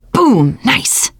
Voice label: nice shot, teen girl; player; survivor; voice